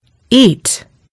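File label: "eat", American English; gcompris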